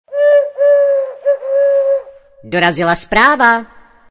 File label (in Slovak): sms hlásky cz